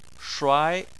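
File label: "shuai" tone 2, Chinese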